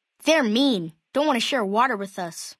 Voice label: male child greeting; FNV

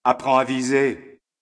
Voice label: Learn To Shoot, FR

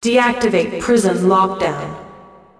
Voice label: Mars Prison Announcer; TimeSplitters 3

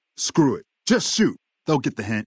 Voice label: male adult leave water; FNV

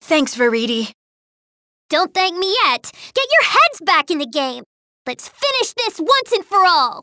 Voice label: unused no echo; Kid icarus uprising; chapter 25; viridi

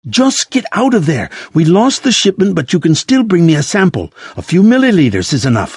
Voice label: Unused Airport VOIP Conversation

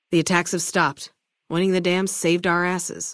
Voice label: female adult greeting; Fnv

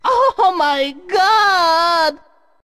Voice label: Luigi Miss Japanese; Mario Party

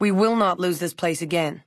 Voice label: female adult greeting; Fnv

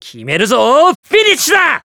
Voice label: sn male jp; Sonic Forces

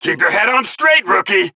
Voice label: male en; Sonic Forces

